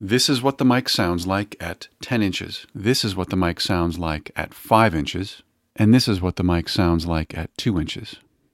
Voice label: bcm705, proximity, podcast mics